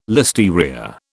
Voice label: "listeria", British